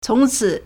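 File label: "cóngcǐ", Chinese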